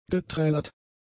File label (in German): "geträllert", german; adjectives